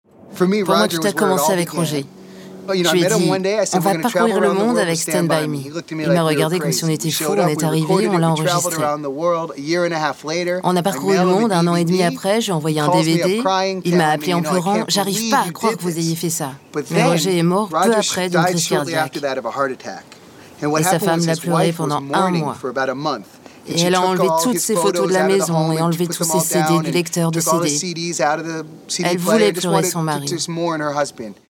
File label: Voice over